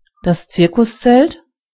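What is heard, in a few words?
big top, German voices words; gcompris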